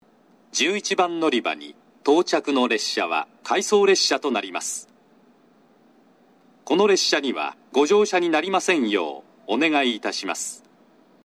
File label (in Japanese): 11番のりば接近放送（当駅止まり→回送列車）; takeo onsen